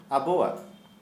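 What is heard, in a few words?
Santali, male, ours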